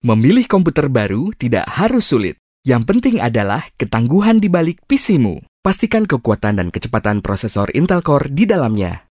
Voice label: Bahasa Indonesia cafe; Intel